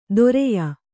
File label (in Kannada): "ತೊರೆಯ", Kannada